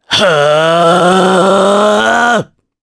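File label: Casting, Japanese; voices heroes jp; Roi; kingsraid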